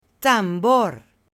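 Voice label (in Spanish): "tambor", Spanish, male; JUGUETES; AppLenguaje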